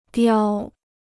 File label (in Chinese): "叼", Chinese